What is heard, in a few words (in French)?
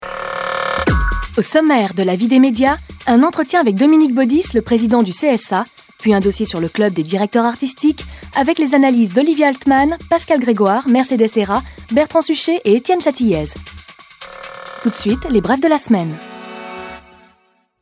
Voix Off Emission Sommaire; La vie des medias sur LCI